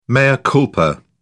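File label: "mea culpa", British English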